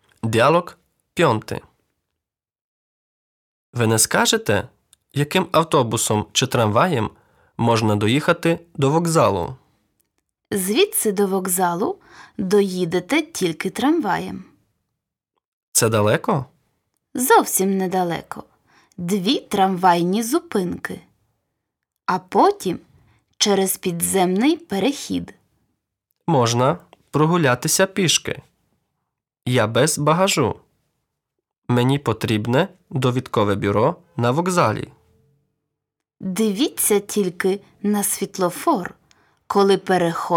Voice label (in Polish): Lekcja Dialog